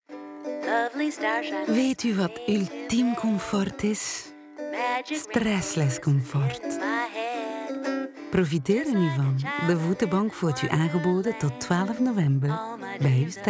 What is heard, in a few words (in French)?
FLAMAND Pub; EKORNES